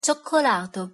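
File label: "cioccolato", Italian pronunciation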